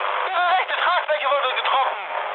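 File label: German right wing mood high, speech; War Thunder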